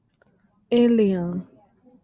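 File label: "alien", Japanese speaker of English, female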